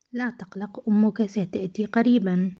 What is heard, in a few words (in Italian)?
Arabo